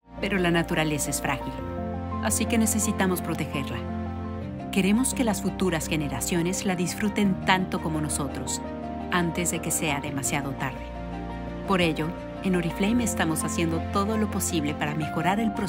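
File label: Latin American Spanish commercial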